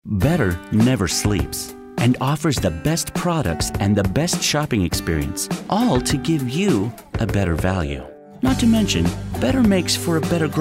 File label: confident